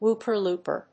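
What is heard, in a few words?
"wooper-looper", English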